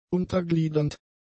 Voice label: "untergliedernd", German; adjectives